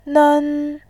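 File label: "nen", Mandarin, tone 1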